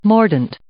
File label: "mordant", English